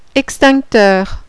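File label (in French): "EXTINCTEUR", French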